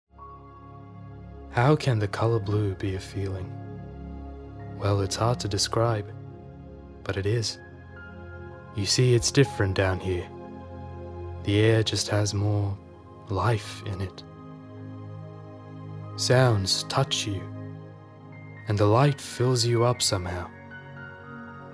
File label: Voice Over